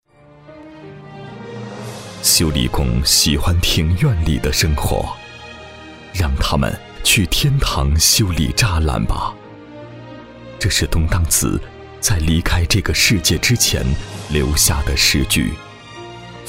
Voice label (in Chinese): 男, 国语男声配音, 大气专题; 东荡子 修理工